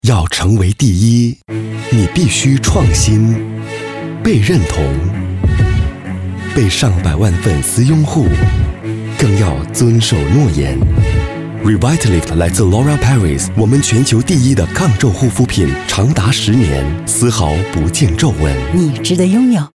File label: Mand; Loreal No Wrinkle In Sight